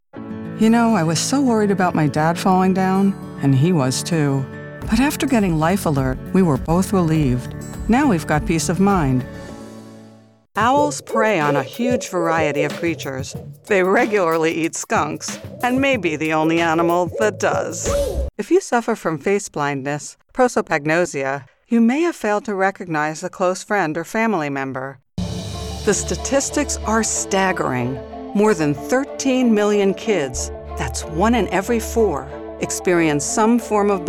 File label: english american commercial; Vereinigte Staaten